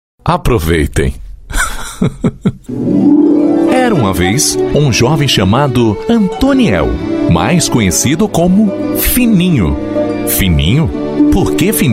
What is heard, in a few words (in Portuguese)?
voz impacto padrao e coloquial gravacoes